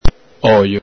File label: "ojo", Venetian